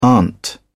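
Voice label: "aunt", British English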